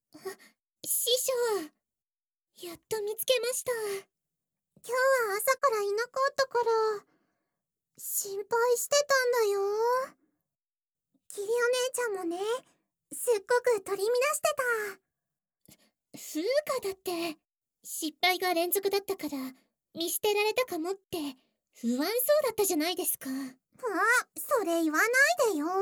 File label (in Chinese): 舔耳 無音效; 拯救師父！用舔耳忍住不射精來解咒的修行